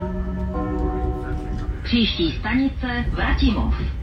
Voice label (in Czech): Hlaseni